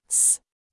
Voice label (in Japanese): 子音sのみ発音